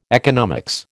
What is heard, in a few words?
"economics", American